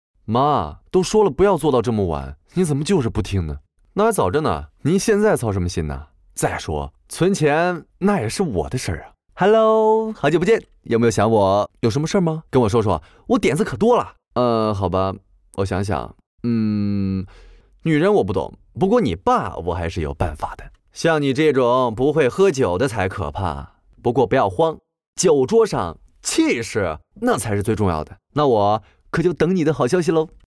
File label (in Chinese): 男国 动画 角色 婚礼